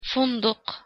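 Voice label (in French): hotel, Arabic pronunciation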